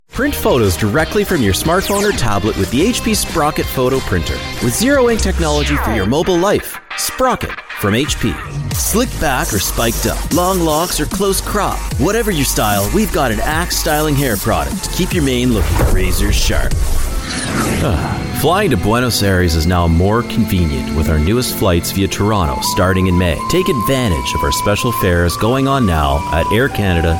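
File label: male, Canadian English, commercial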